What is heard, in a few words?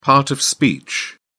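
"part of speech", British English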